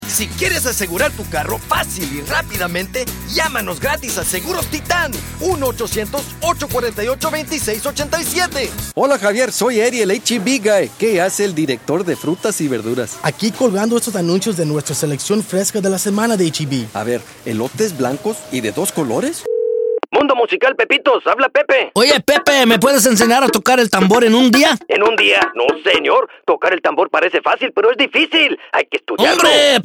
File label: spanish males